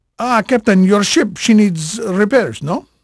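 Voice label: Spanish male, voice; Pirates Of The Caribbean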